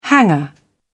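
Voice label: "hanger", British English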